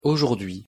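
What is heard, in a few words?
pronunciation "aujourdhui", French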